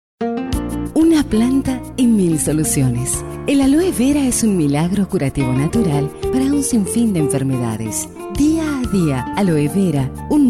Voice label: Spanish LATAM; Foreign Languages